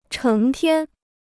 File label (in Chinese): "成天", Chinese